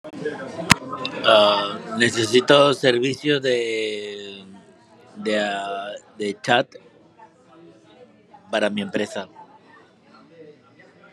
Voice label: voice message